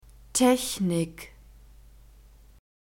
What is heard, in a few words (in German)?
"technik", German; nouns gender feminine